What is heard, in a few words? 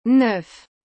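"neuf", French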